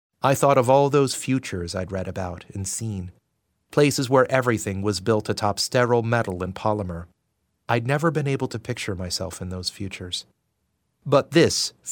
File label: english american audiobooks